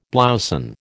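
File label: "blouson", American English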